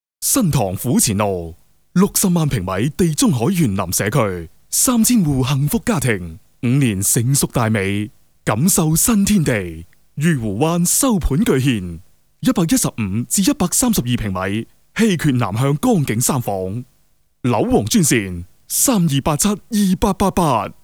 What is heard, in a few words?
Cantonese male